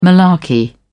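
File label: "malarkey", British English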